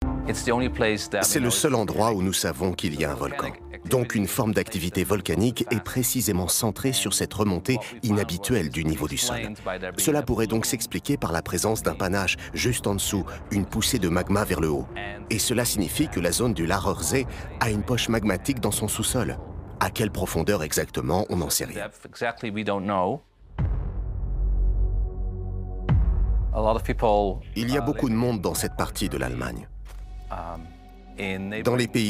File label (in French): Voice Over; Volcans Menace sur l'Europe